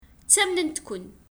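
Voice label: Kabyle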